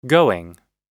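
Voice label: "going", American English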